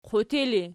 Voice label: "qutii", Archi